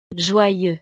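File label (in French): "joyeux", French; estados animo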